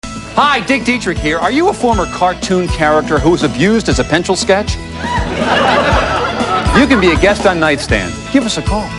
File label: Cartoon Character; NightStand; Upcomming Shows